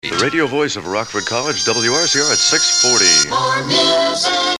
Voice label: Radio Voice bumper; WRCR